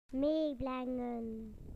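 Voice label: "to bring", British English; PictureDictionary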